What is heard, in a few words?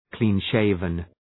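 "clean-shaven", English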